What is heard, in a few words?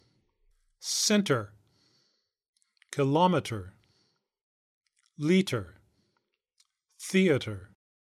British and American English; lernstudio